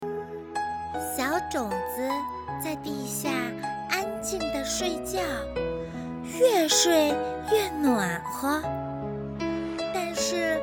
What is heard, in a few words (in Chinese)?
故事音频